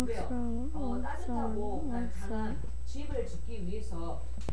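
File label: "also", spoken word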